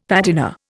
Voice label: "patina", Indian; Dictionary

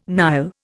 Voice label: "Nile", Indian

